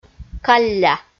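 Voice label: "калля", Komi, female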